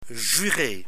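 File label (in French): "jurer", French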